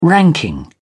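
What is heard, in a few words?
"ranking", British English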